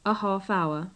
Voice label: "a half hour", English